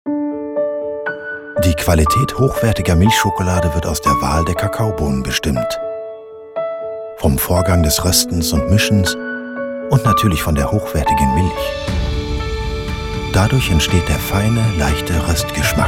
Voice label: german commercial; Deutschsprecher aus Berlin

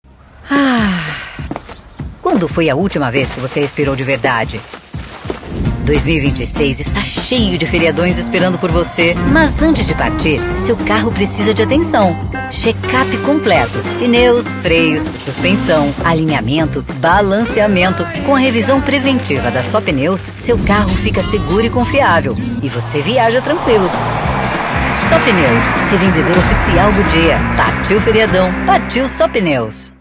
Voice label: portuguese brazilian tv shows

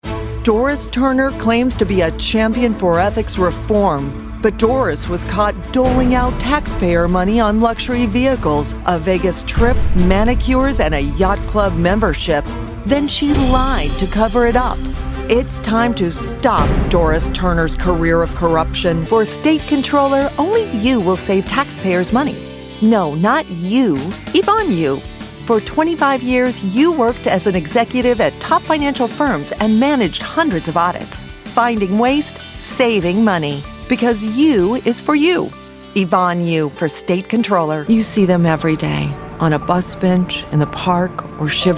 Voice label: english american political ads

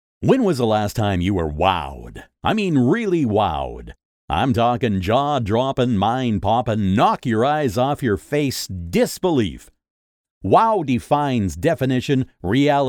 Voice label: english american tv shows

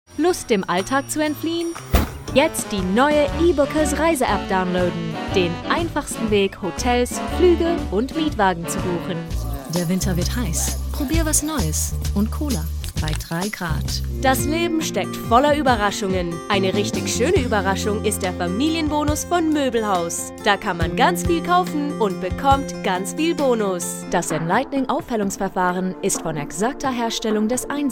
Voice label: german commercial

